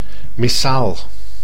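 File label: "missaal", Dutch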